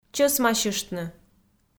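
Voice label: "чӧсмасьыштны", Komi, female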